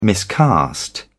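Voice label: "miscast", British English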